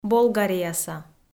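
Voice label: "болгарияса", Komi-Zyrian, female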